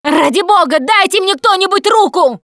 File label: ledge hang middle, russian, Survivor Voice Producer